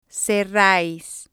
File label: "cerrais", spanish